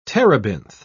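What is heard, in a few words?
"terebinth", British English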